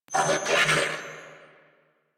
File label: Surprised Grineer Male; New War; Narmer